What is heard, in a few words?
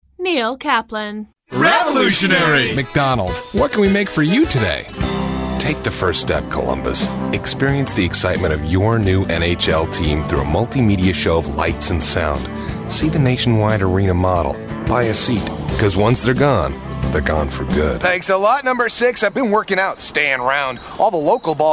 Commercial